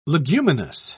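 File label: "leguminous", British English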